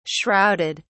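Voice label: "shrouded", British English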